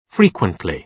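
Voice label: "frequently", British English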